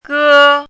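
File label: "gē", Chinese; 咯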